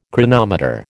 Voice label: "chronometer", American

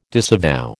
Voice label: "disavow", American

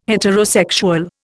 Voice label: "heterosexual", Indian

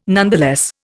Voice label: "nonetheless", Indian

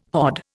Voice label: "hod", Indian

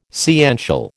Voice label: "sciential", American

